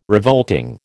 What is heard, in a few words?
"revolting", American